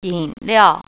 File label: "beverage", Mandarin